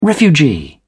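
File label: "refugee", British English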